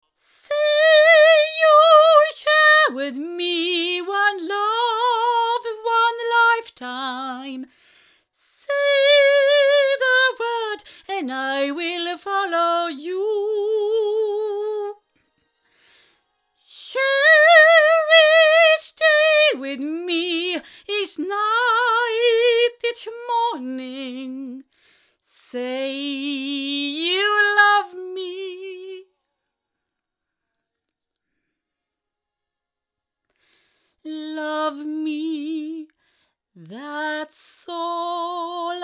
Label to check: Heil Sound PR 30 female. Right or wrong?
Right